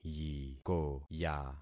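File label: "yikoya", concatenated syllables; Affixing experiment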